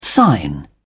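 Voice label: "sign on", English, British English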